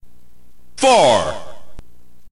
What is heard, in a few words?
narrator